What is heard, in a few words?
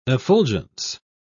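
"effulgence", British English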